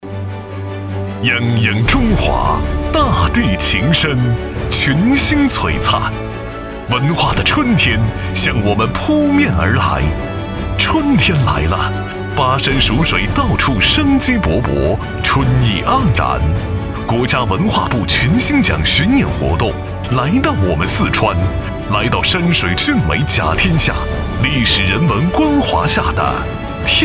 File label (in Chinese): 国语男-颁奖大气; 四川山水